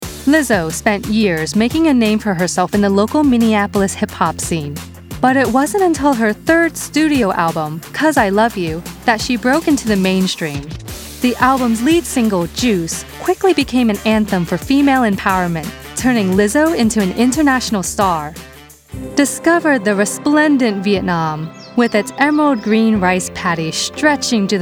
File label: Narration; Excelling Rivalrous Love